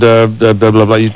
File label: babble